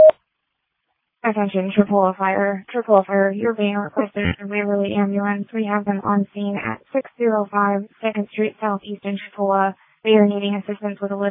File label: Tripoli Fire, dispatch